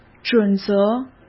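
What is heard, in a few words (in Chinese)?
"准则", Mandarin Chinese; hsk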